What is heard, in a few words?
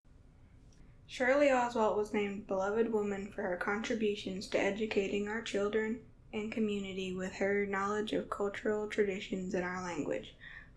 english